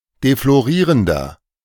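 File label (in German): "deflorierender", German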